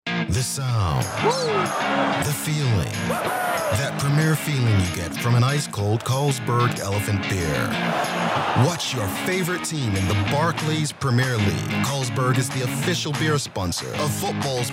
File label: Commercial